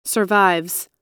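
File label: "survives", US English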